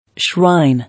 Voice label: "Shrine", English